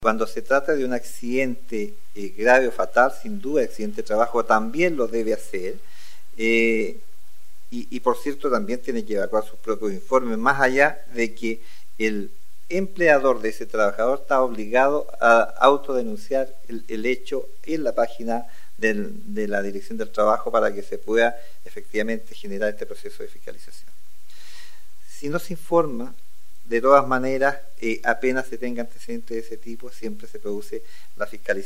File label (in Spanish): Cuña